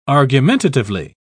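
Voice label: "argumentatively", British English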